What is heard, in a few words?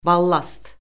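"ballast", Azerbaijani; Orfoqrafiya Lüğəti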